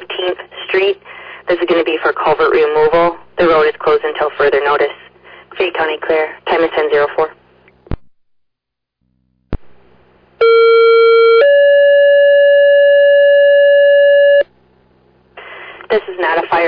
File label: Ambulance, dispatch